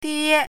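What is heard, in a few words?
"die1", Chinese pinyin, first tone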